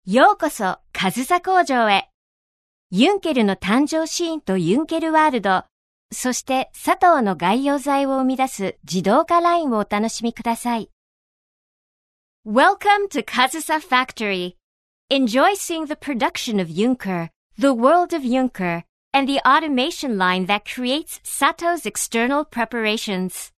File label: Bilingual English and Japanese